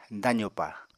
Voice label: "dazopa", Apatani

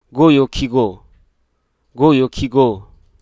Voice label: "grip", Hruso

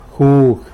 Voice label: "jug", tlacolula; juice

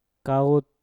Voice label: "kaut", Mpur; basah

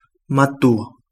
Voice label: old, Kapingamarangi

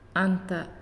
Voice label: "That", Naasioi

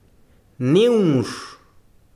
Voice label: besiro bosque, chiquitano

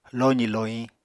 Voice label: "lozì-lohiñ", Apatani

